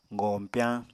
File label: "goñpyañ", Apatani